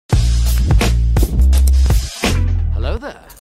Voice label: sexy hello there